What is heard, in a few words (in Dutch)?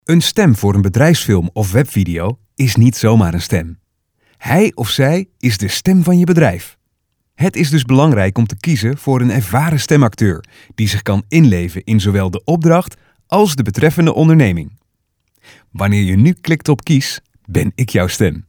BEDRIJFSFILM